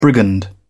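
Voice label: "brigand", British English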